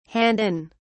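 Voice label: "hand in", British English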